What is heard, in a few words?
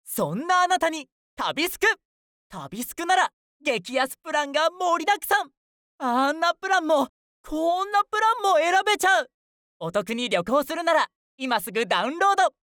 male